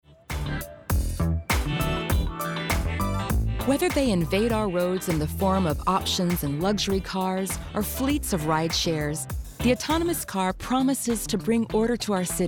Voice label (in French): Docu; CarWars